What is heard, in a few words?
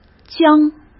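"僵" Mandarin Chinese; hsk; chinese-flashcards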